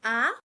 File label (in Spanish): vocale a, Espagnol; vocales